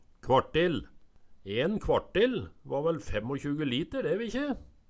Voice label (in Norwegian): kvartil, Numedalsmål